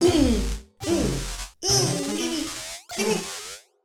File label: bot excited; midnight guns